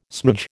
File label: "smoodge", American